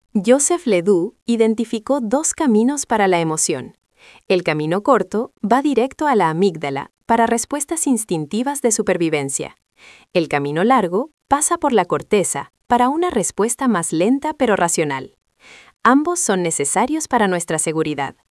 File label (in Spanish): locucion